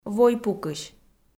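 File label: "войпукысь", Komi, female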